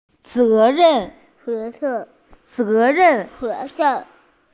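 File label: "ze2ren4" Mandarin Chinese, female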